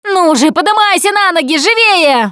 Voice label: revive friend loud, Russian; Producer

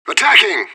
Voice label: radio bot reponse attacking, vo fbi; fbihrt

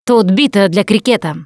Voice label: melee weapons, russian, Survivor Voice Producer

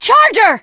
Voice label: teengirl, voice; Left 4 Dead 2; charger

